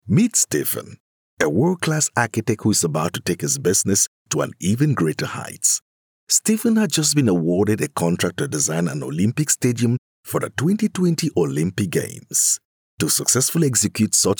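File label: English African male Commercial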